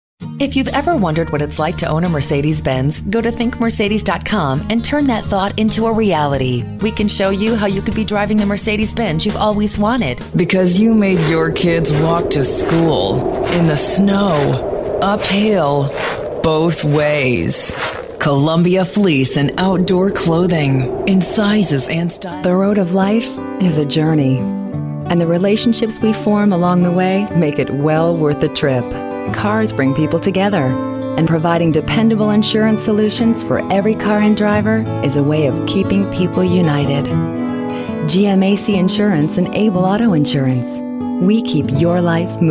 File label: commercial